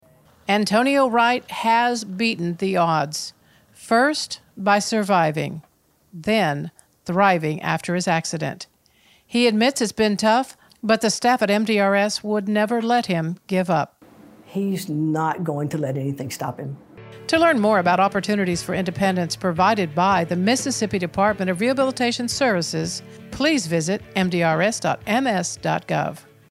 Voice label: NARRATION